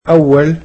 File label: "first", egyptian